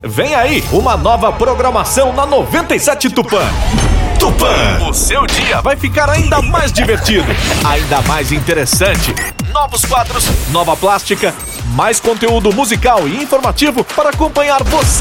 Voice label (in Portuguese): chamada radio campanha; nova plastica 97 fm tupa